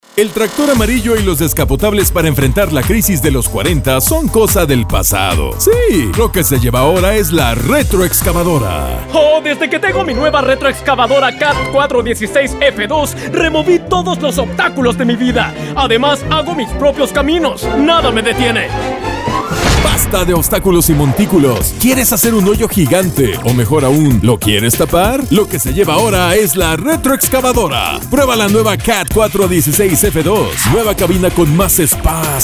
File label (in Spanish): comercial; FINNING CAT; PORTAL DEL WEB